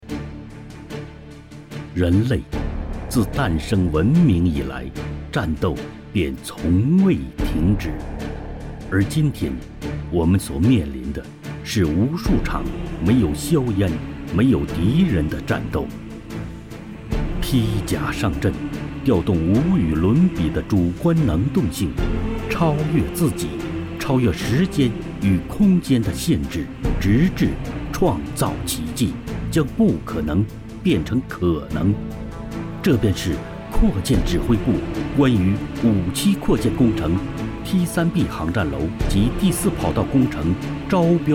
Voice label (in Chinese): 宣传片浑厚大气; T3B航站楼启动